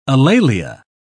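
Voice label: "alalia", British English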